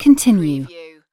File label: UK female "continue"; lynne publishing